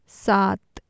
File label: "saat", Urdu, seven